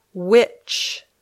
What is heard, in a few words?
"which", English